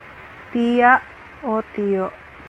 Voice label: Cebuano